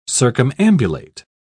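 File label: "circumambulate", British English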